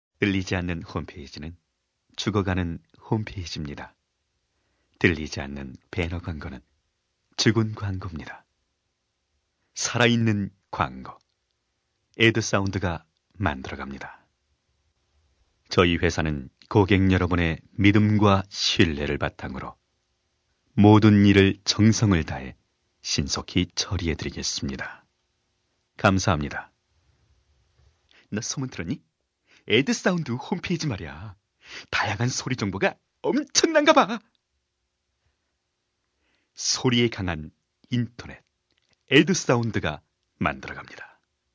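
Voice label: Korean male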